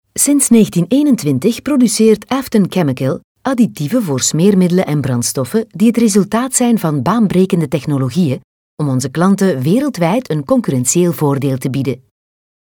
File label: flemish female informative